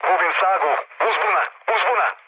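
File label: Serbian damaged engine mood low, speech; War Thunder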